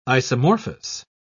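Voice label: "isomorphous", British English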